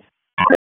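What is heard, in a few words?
Police Southwest District Tac, radio; Wake; p25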